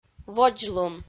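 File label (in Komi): "водзлун", Komi, female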